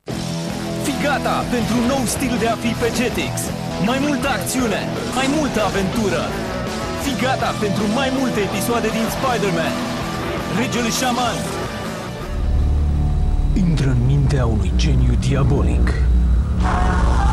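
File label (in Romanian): Romanian, General; GoLocalise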